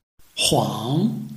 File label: "huang2", Chinese